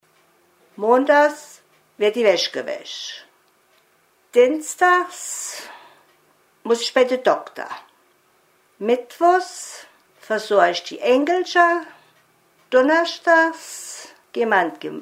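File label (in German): wochenplan, Hunsrück; Völkenroth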